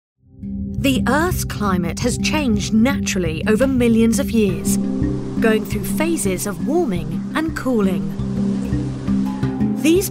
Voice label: narration documentary